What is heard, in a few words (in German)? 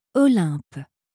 "olympe", franzoesische maedchennamen